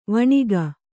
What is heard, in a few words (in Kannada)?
"ವೈಣಕ", kannada